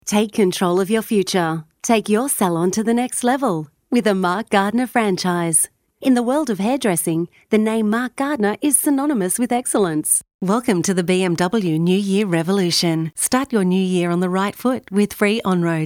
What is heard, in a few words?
commercial